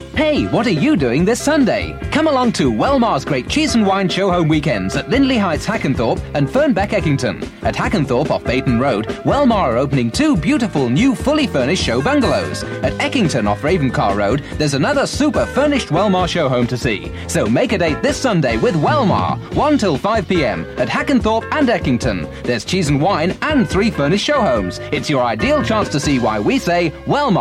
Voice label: Radio Advert; Wellmar; Radio Hallam